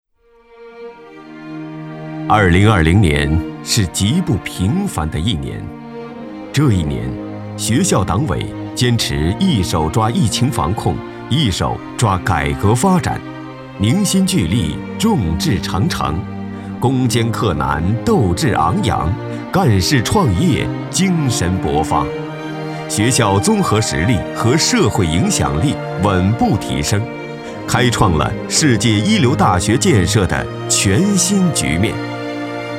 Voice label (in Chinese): 男 党政专题 学校类